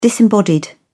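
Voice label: "disembodied", British English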